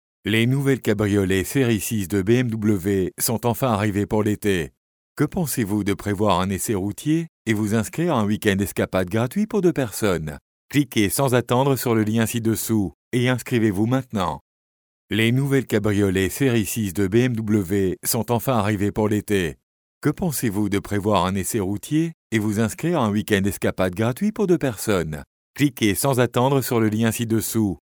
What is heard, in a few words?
male french voice over sales, france